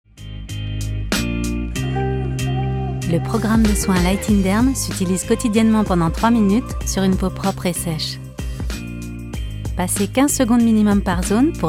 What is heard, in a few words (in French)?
Spot, voix-off; Lightinderm Redness